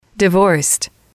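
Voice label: "divorced", English, female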